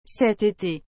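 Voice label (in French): "cet été", French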